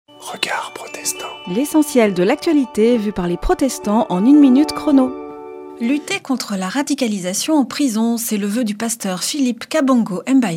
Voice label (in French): Spot radio; Regards protestants